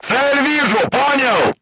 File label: voice message target lock, crew tanks russian